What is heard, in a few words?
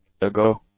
"ago", English; adjectives